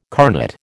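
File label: "carnet", American English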